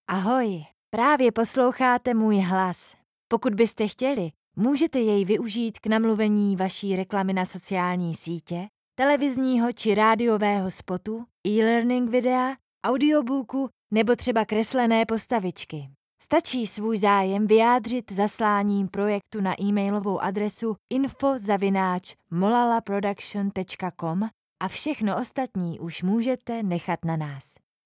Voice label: czech narration